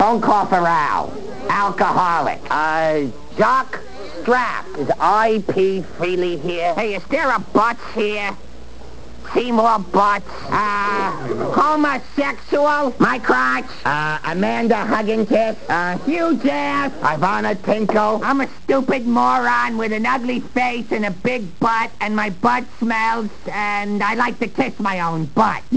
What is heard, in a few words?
prank phone calls